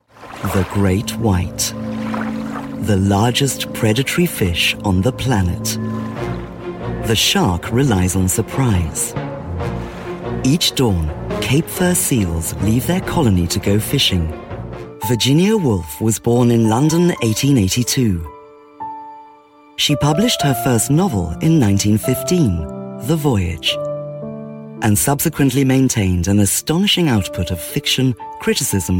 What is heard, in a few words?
Documentary Narration